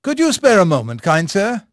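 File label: English male; Pirates Of The Caribbean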